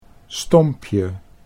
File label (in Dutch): "stompje", Dutch